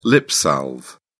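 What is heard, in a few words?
"lipsalve", British English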